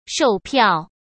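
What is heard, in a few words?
"售票" Mandarin Chinese; hsk; chinese-flashcards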